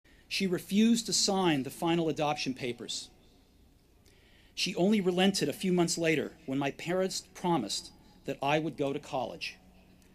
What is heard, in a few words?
Commencement Address; Steve Jobs; 2005; Stanford